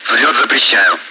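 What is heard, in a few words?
Speech, Russian, air traffic control; ATC; Messages; unable to clear takeoff; DCS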